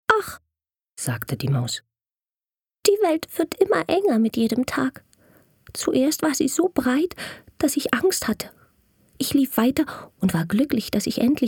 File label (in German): hoerspiel; LOFT VOICES